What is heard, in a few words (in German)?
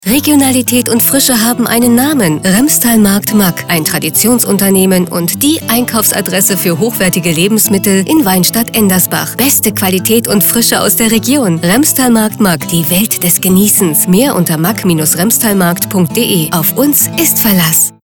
Radiospot